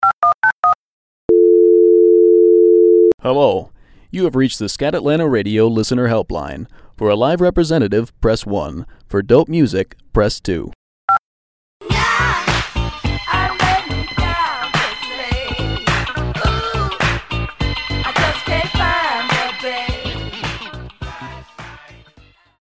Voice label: radio promo; scad atlanta